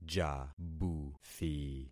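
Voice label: "jabuthi", concatenated syllables; Affixing experiment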